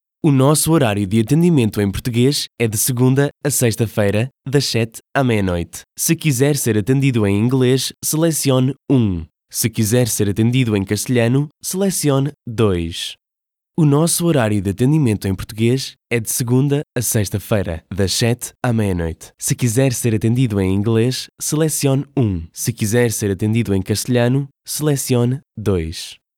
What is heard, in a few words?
Phone System DRY